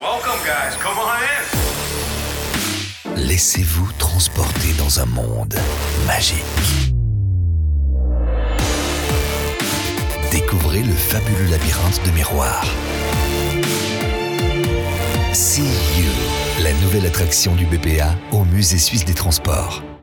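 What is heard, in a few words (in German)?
Werbung, French, Swiss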